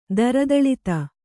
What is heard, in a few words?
"ದರದಳಿತ", Kannada